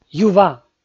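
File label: "ю ва", Komi, male; kpv audio dict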